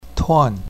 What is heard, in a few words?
"tuan4", pinyin